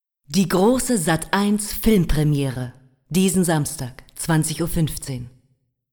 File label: jingle; sat 1